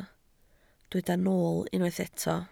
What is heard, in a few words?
"nool", Welsh